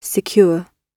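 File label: "secure", British English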